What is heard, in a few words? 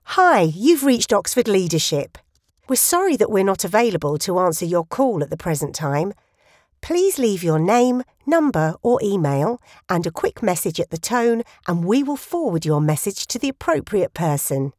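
english british interactive voice response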